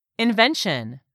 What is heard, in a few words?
"INVENTION", english; 3000 words